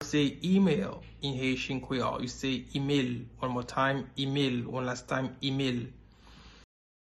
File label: Email in Haitian Creole Imel pronunciation